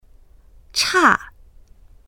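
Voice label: "cha4", Chinese; 差; 欠ける、異なる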